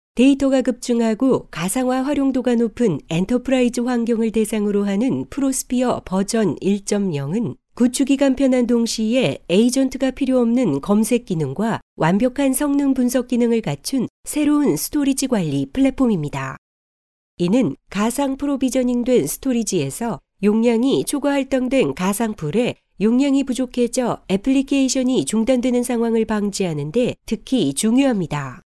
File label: female korean voice over narrative